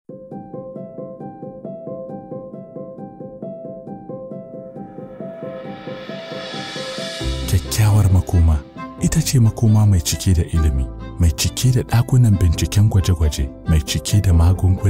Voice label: english african others; Nigerian and African voiceover actor

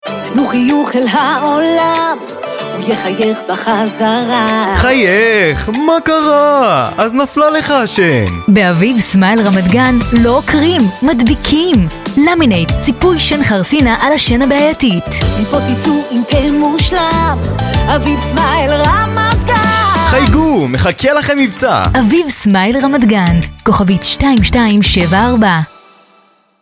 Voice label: hebrew promos

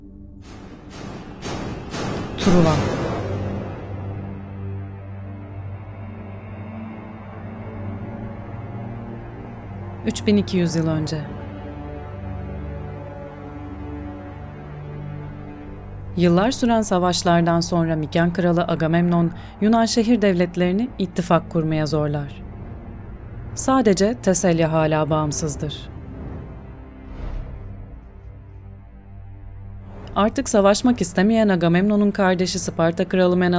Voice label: turkish documentaries

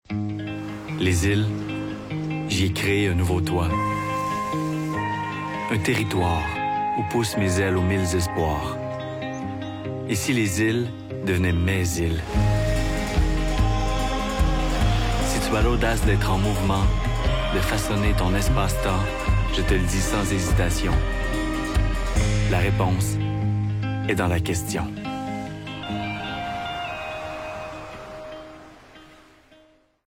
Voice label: french canadian documentaries

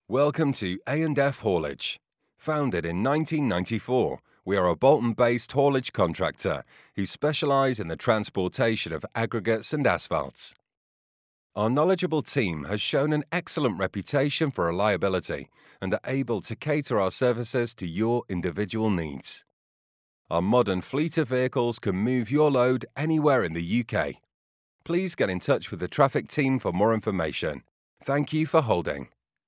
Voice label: english british ivr

